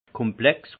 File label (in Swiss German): "komplex", alsace; vin; complexe